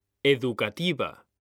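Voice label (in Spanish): "educativa", Spanish; mekanta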